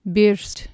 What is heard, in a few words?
"byrst" Anglo Saxon